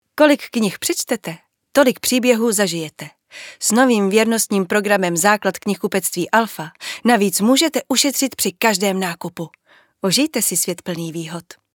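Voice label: Czech, female, commercial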